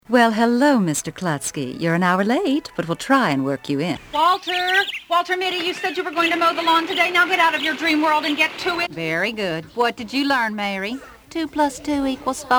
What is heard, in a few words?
Character